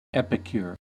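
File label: "epicure", US English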